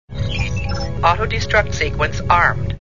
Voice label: auto destruct sequence armed, computer voice